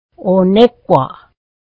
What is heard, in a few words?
peas, mohawk